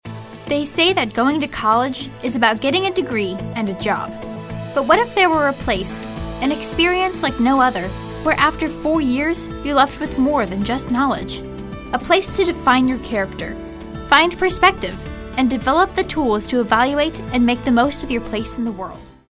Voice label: girl next door

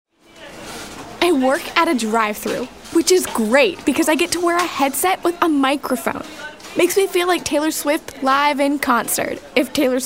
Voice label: upbeat

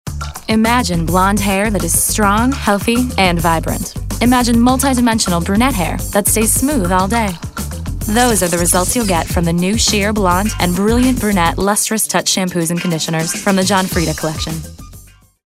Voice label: sexy

